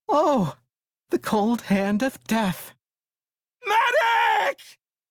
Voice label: Crew Need Revive, Male, en; Dialog; Warframe; Railjack Crew; Perrin Sequence